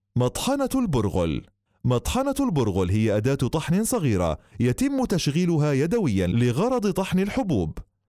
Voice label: arabic